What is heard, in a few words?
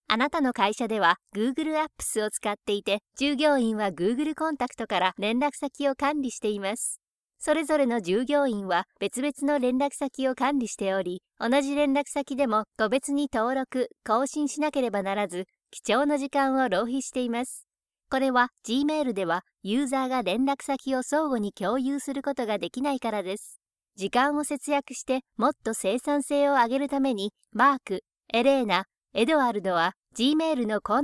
Corporate straight forward; Google app